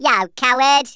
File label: "coward", Brummie; Worms Armageddon